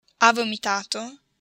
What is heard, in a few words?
"vomitato", Italian